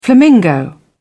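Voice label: "flamingo", British English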